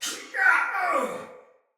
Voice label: Death Soldier Male; Sinecure Soldier Male A